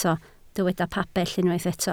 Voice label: "pabell", Welsh

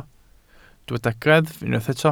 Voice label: "greddf", Welsh

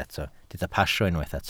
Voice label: "pasio", Welsh

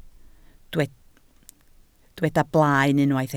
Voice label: "blaen", Welsh

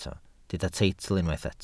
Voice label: "teitl", Welsh

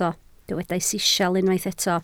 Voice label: "sisial", Welsh

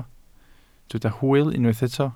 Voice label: "hwyl", Welsh

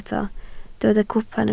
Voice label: "cwpan", Welsh

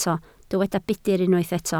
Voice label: "budr", Welsh

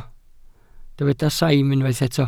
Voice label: "saim", Welsh

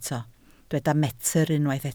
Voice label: "metr", Welsh

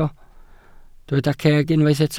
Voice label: "ceg", Welsh

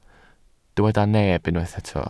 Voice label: "neb", Welsh

